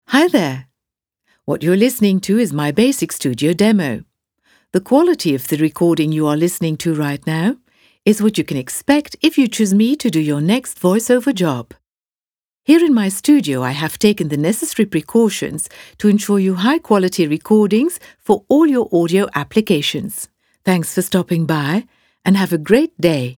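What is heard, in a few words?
studio demo english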